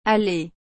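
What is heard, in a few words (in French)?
"Allez", French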